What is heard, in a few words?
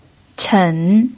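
"chen", pinyin, third tone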